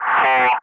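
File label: Speech, English, Digits, 3 continue; Ka-50; Wingman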